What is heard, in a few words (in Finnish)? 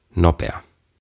"Nopea", "Fast"